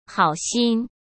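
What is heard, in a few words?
"好心", Mandarin Chinese; chinese flashcards; hsk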